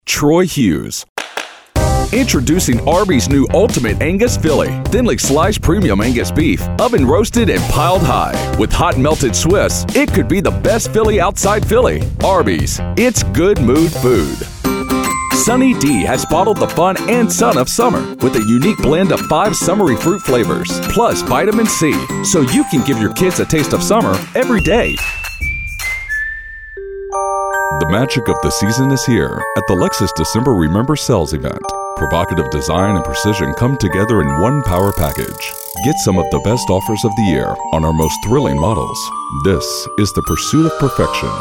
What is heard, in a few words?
Commercial